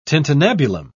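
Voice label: "tintinnabulum", British English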